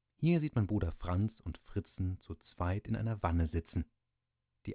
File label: male 8kHz